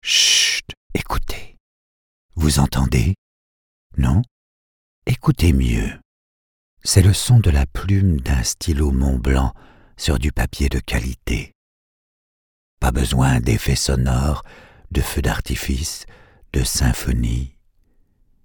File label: Adv FR Intimate; Mont Blanc